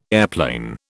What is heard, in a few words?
"airplane", British